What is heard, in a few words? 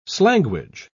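"slanguage", British English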